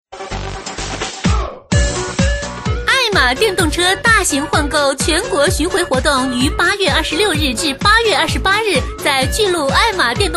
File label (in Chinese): 女 电动车广告 热情促销